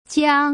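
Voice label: "jiang", Putonghua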